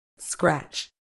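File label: "scratch", British English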